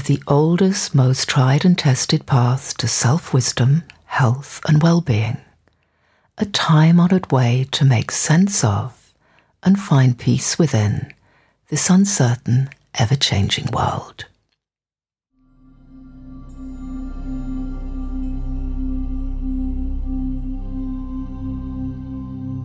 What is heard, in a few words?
Meditation; Step Into Meditation